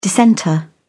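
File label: "dissenter", British English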